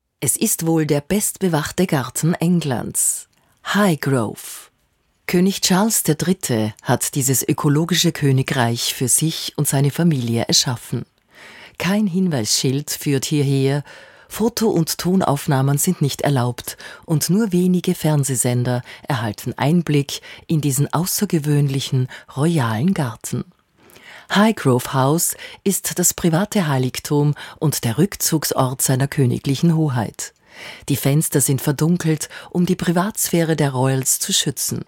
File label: documentary